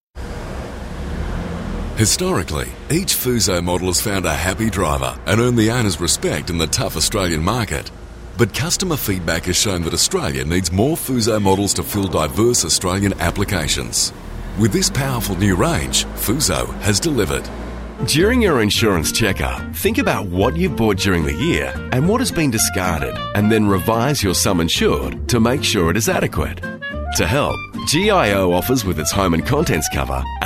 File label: corporate